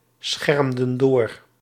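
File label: "schemerden door", Dutch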